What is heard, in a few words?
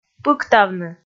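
"пыктавны", Komi, female; kpv audio dict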